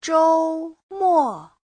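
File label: "周末", Chinese; (danh từ) cuối tuần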